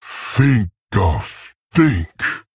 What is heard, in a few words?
Male Tauren Thinking